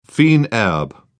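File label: "fines herbes", British English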